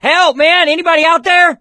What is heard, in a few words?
call for rescue, survivor voice